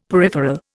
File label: "peripheral", Indian; Dictionary